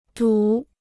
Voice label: "堵", Chinese